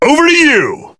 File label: Assist Call, ENG; BBTAG